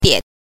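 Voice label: "die", Mandarin Chinese pinyin, neutral tone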